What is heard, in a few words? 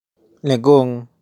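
"Lagoon", English; Naturaleza; nature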